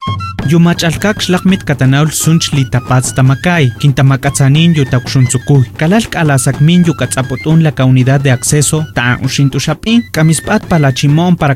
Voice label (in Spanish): tepehua spot